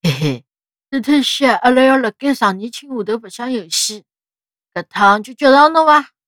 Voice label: 小男孩 玩游戏

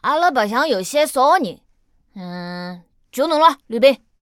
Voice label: 残疾小孩

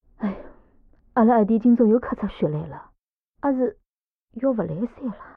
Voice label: 偷听对话; 李氏

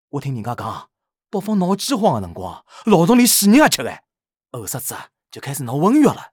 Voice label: 上海人; 上海人C

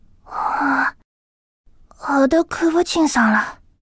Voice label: 开头阅读

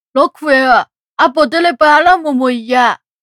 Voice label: 小男孩 问猫

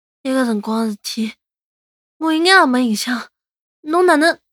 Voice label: 男孩 对话

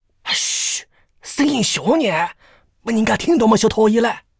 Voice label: 偷听对话 癞子

